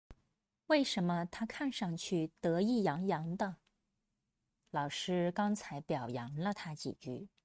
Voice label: denoised